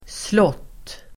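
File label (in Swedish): "slott", Swedish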